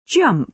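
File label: "jump", British English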